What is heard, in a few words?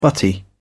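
"butty", English, British English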